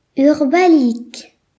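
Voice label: "shovel", Breton; gcompris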